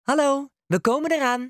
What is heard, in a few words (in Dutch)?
Ring Nederlandse snelle antwoorden